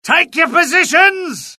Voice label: alert, UK; mohaa; dialogue